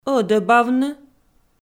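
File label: "ӧдӧбавны", Komi, female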